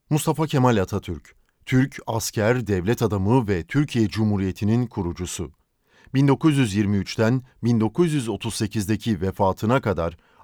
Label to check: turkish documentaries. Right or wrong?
Right